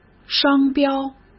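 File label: "商标" Mandarin Chinese; hsk; chinese-flashcards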